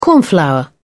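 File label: "cornflour", British English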